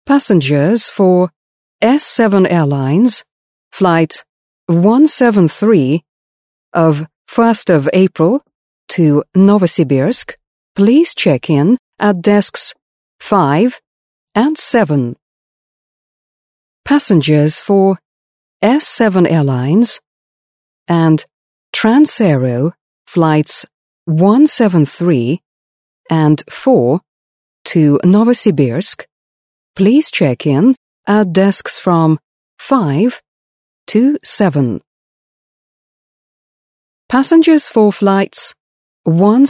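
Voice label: British; uk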